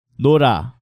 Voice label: "Nora", Korean